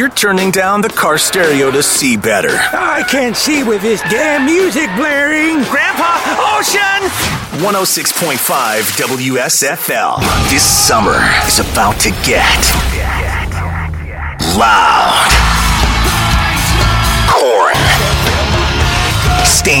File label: radio imaging men rock